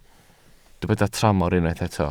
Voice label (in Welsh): "tramor", Welsh